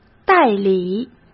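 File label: "代理", Mandarin; chinese flashcards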